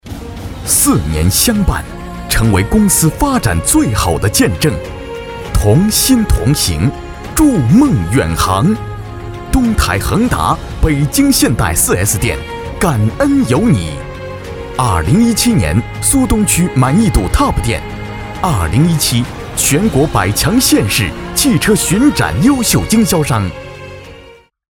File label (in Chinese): 男 颁奖